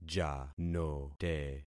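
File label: "janote", concatenated syllables; Affixing experiment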